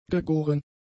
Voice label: "gegoren", german; adjectives

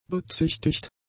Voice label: "bezichtigt", German; adjectives

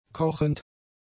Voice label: "kochend", German; adjectives

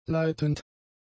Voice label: "leitend", German; adjectives

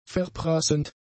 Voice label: "verprassend", german; adjectives

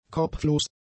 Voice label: "kopflos", German; adjectives

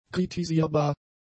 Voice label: "kritisierbar", German; adjectives

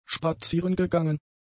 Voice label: "spazierengegangen", german; adjectives

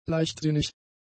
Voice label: "leichtsinnig", German; adjectives

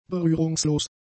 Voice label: "berührungslos", German; adjectives

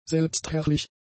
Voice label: "selbstherrlich", German; adjectives

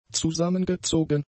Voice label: "zusammengezogen", German; adjectives

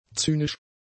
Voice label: "zynisch", German; adjectives

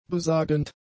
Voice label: "besagend", German; adjectives

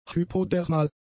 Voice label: "hypodermal", German; adjectives

